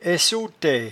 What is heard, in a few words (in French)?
"aissotaie", patois jura